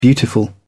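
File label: "beautiful", British English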